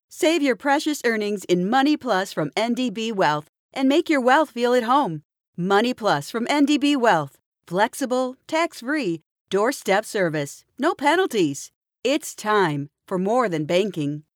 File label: female american voice over sales; english american